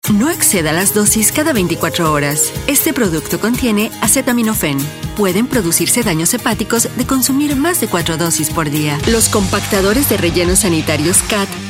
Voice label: spanish latin american commercial